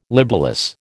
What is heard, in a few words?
"libellous", American